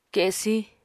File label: "kesí", Apatani